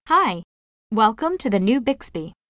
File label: onboarding provision greeting sub en us; Samsung Galaxy S10; Bixby